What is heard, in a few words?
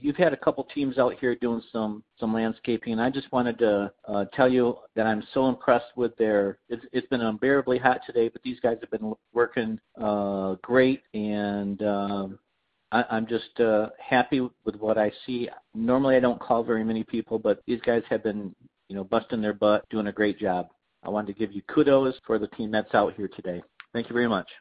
Voicemail